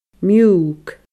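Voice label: "miug", Scottish Gaelic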